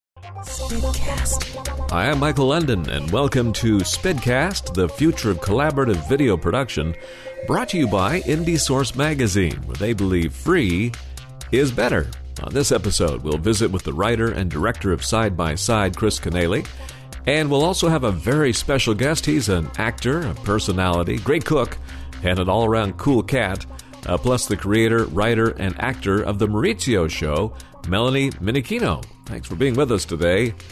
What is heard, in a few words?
Podcast PSA